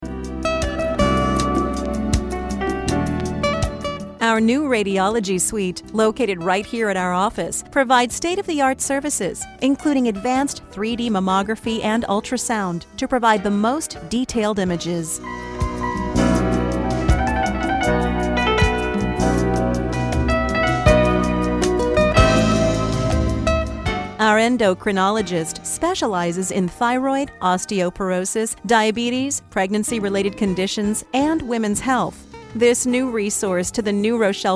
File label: Medical Messages On Hold, Hospital; custom recorded marketing messages